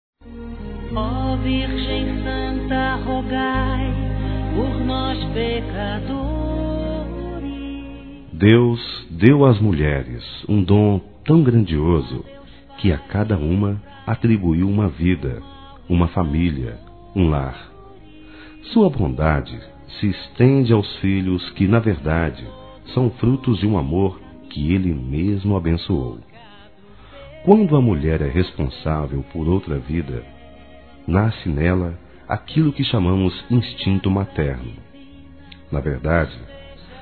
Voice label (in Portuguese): RELIGIOSO P MÃE GERAL, Masc; Dia das Mães; Telemensagens 2017